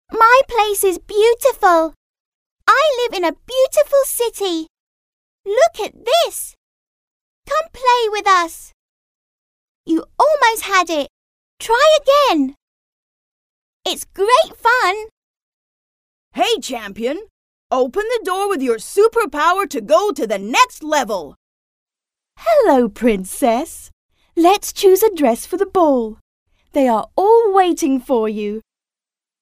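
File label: British English female children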